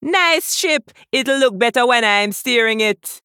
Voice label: Female Troll Trigger